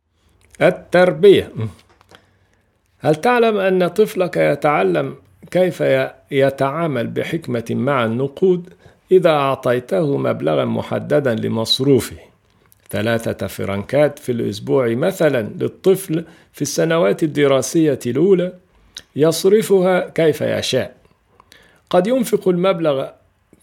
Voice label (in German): arab